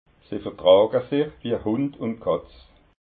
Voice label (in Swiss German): "hund", alsace